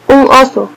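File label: bear description, Spanish; animals; mammals; bears; stamps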